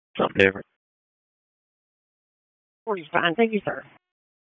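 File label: EMS Dispatch, p25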